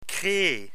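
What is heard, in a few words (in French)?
"creer", French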